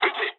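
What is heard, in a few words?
Japanese attack position mood low; War Thunder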